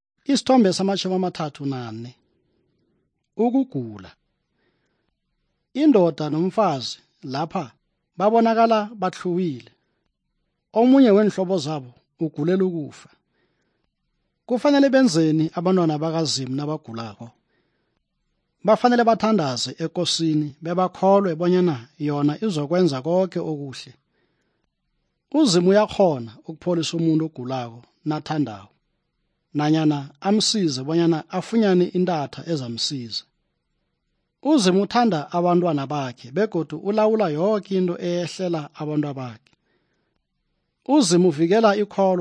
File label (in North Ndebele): good news ndebele southern, drama